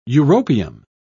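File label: "europium", British English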